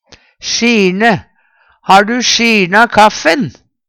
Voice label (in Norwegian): sjirne, Numedalsmål; Verb; Ha'ru sjirna kaffen?